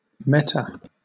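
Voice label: "meta", British English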